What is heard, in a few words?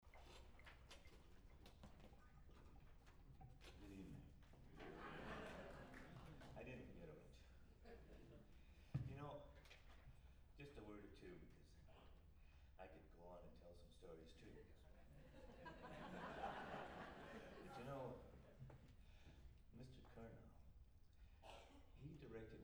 Announcements